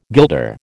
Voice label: "guilder", American

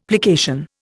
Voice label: "plication", Indian; Dictionary

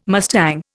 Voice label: "mustang", Indian

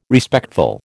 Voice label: "Respectful", American

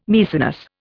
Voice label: "Maecenas", Indian

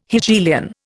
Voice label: "Hegelian", Indian

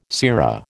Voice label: "sera", American

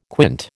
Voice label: "quinte", American

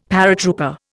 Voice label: "paratrooper", Indian; Dictionary

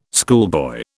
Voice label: "schoolboy", British

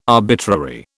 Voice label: "arbitrary", British

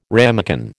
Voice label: "ramekin", American

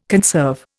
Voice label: "conserve", Indian

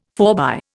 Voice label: "forbye", Indian

